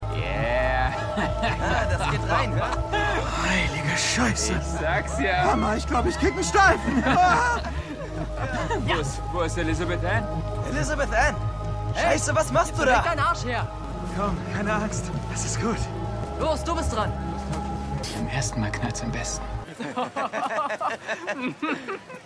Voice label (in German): Jugendlicher; Chase; Ryder; Elizabeth Ann; Dopesick